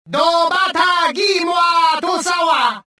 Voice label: cover, rodian